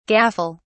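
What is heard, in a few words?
"gaffle", British English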